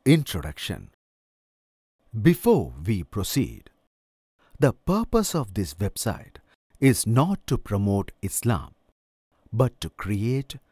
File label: Voice Over Explanation